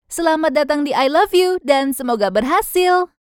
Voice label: LOGIN indo